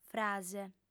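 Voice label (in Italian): "frase", lingua sarda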